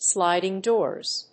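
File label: "sliding doors", English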